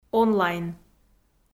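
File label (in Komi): "онлайн", Komi, female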